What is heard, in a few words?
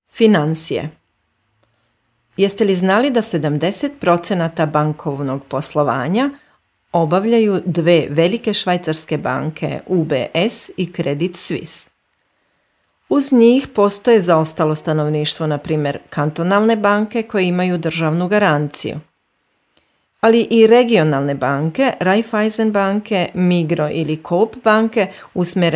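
Serbian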